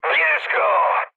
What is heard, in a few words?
attack position mood med, speech pol; War Thunder